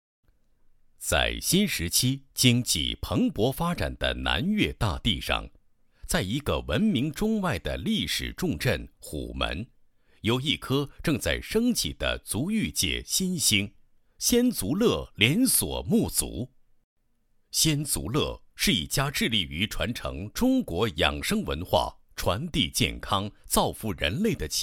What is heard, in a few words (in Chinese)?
男 宣传片 连锁沐足宣传片配音; 仙足乐